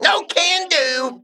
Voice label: Male Mech